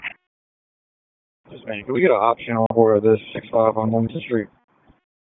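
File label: Raleigh Police Southeast District Dispatch; p25; wake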